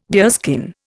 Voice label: "deerskin", Indian